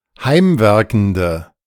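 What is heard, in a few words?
"heimwerkende", German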